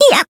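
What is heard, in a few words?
Voice damaged, Japanese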